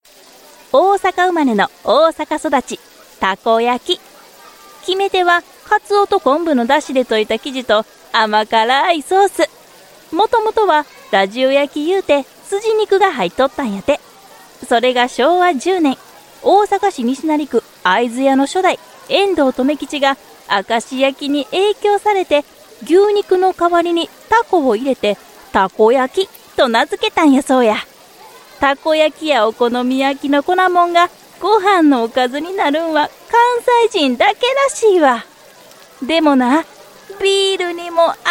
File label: Japanese Osaka Dialect Narration, Female